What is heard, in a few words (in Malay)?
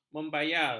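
"membayar", Malay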